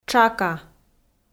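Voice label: "тшака", Komi, female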